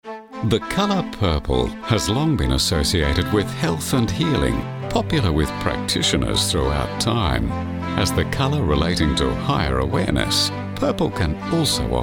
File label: corporate